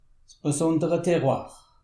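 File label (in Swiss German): "terroir", Alsatian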